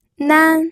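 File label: "nan", first tone, pinyin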